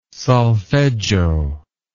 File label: "solfeggio", English